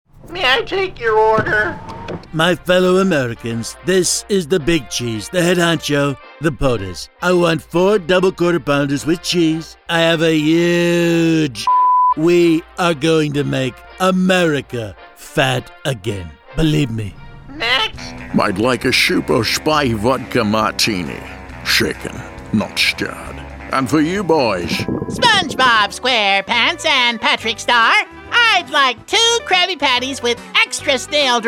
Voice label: Characters, deep